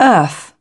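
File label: "Earth", British English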